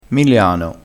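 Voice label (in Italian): "miliano", dialetto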